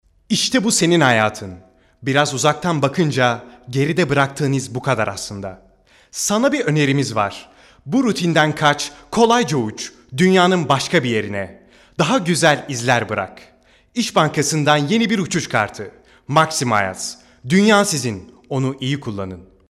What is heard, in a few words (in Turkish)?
REKLAM